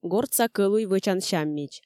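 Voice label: "гортса кӧлуй вӧчан сяммич", Komi, female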